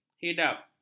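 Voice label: "hidap", Malay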